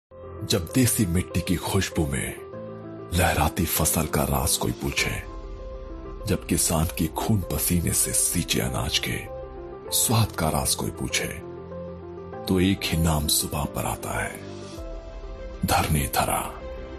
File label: TVC, Commercial, Voice-Over; Dharni dhara